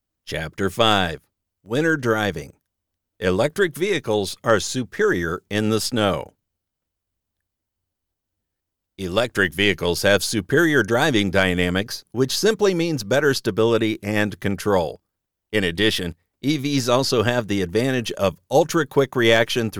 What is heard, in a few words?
1st Person Non Fiction